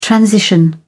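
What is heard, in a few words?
"transition", British English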